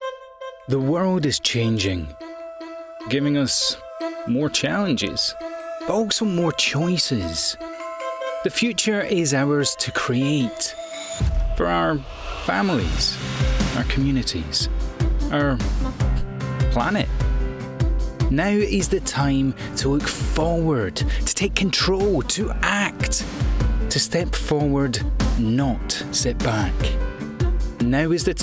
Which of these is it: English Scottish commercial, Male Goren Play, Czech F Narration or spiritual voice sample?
English Scottish commercial